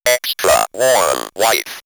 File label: Robot X-Tra Warm Wife, robot vox; X-Static Goldmine